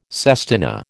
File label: "sestina", American